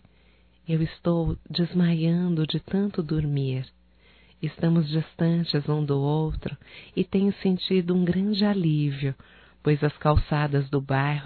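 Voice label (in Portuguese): Gozacao saudades amigo female, Só Voz; Telemensagens; AMIZADE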